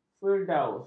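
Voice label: "firdaus", Malay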